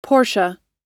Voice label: porsche us